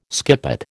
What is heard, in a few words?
"skippet", American